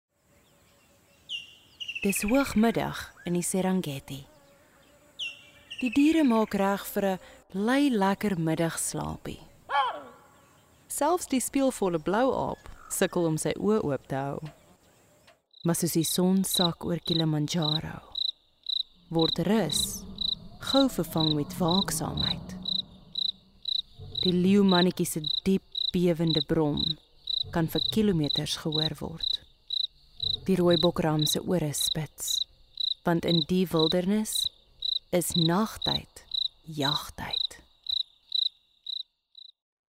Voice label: Afrikaans Documentary

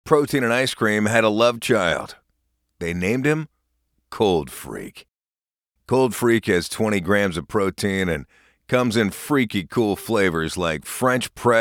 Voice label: DRY Voice

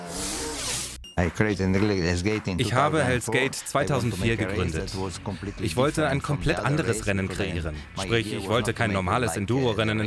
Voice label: german vo dubbing; hells gate

